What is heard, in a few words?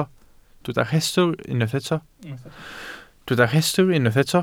"rhestl", Welsh